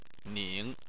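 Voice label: "ning" third tone, Chinese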